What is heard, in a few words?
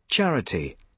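"charity", British English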